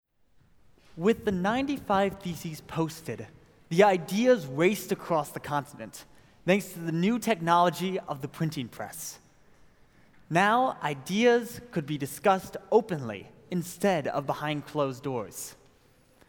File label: narration